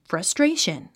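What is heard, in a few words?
"frustration", English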